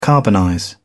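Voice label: "carbonize", British English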